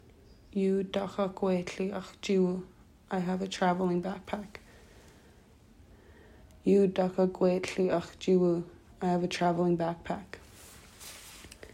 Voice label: i have a bow and arrow, tli; hunting tools

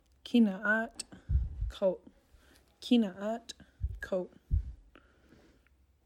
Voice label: coat, tli, clothing; December

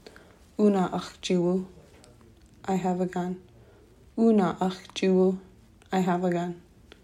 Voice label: i have a gun, tli; hunting tools

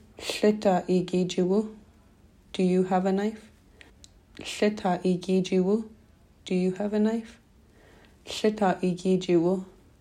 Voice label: do you have a knife, tli; hunting tools

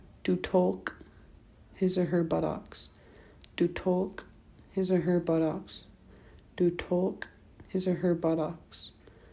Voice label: her buttocks, tli; body parts

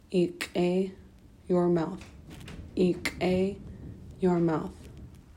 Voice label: your mouth, tli; body parts

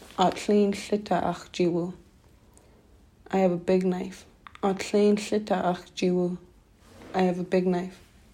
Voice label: i have a big knife, tli; hunting tools

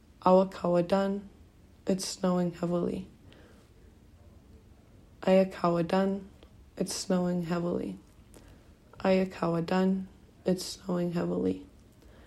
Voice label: its snowing heavely, tli; present; winter weather

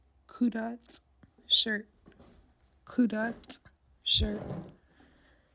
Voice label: shirt, tli, clothing; December

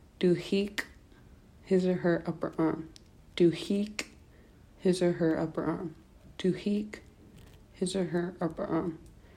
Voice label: his upper arm, tli; body parts

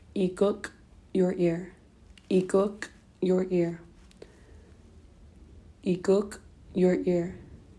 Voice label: your ear, tli; body parts

